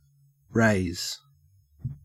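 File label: "raze", Australian English; pronunciations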